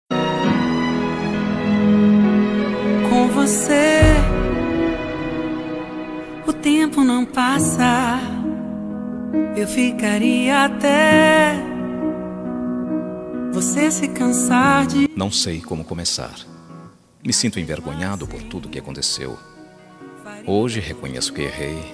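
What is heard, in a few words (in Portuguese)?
DESCULPA ROMANTICA male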